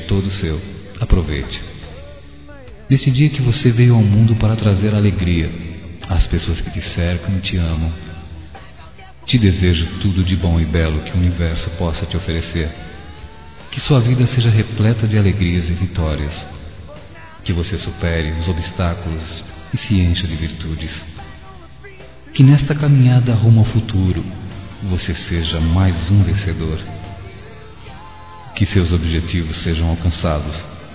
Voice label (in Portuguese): Aniversário Sobrinha Masculino; Aniv Familiar